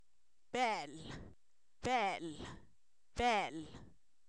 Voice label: culebra, Zapoteco, san miguel albarradas; animales; INALI

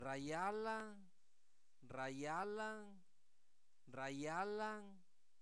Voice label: Zapoteco Albarradas, santo domingo albarradas; INALI; verbos; se derrite

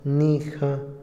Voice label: "iglesia", Otomí, hombre; Religión; INALI

